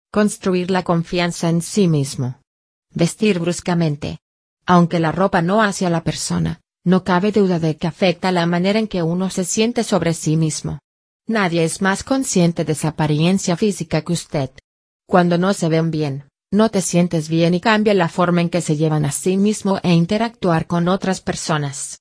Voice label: Spanish Female; Penelope